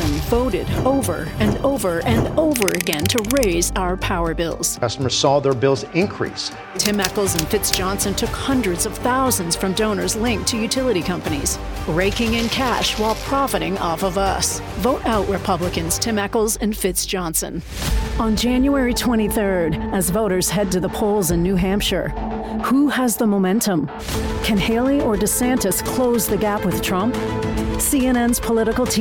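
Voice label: Democrat Voiceover, Female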